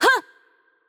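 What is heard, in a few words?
Japanese attack light voice; bloom minigame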